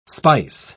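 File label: "speiss", English, British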